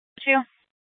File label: Fire Departments Mesa Simulcast; TOPAZ